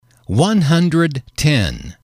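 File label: "one hundred ten", English, male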